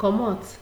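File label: "comot", Nigerian Pidgin, female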